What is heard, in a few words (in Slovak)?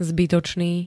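"zbytočný", Slovak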